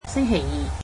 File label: Tuesday, cantonese